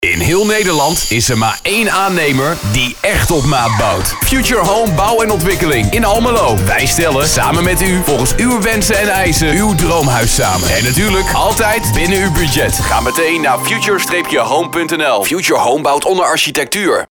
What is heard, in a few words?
radio NL spot; futurehome